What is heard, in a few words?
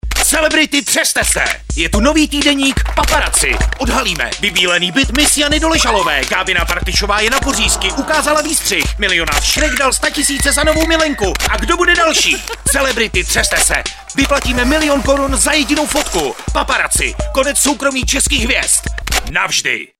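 Male Czech commercial mix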